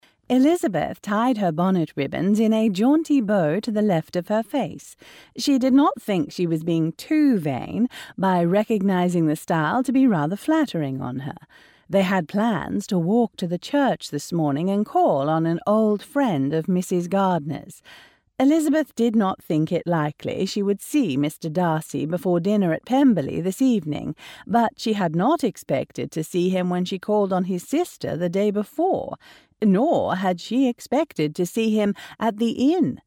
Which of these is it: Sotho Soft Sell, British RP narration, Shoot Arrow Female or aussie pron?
British RP narration